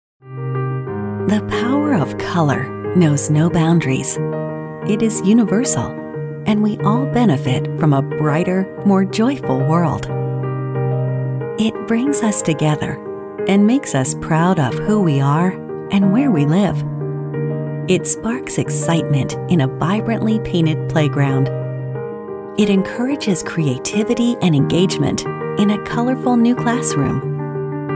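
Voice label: US English, female, corporate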